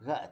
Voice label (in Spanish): "caña", Zapoteco Magdalena Ocotlan; Comestibles; INALI; Haspelmath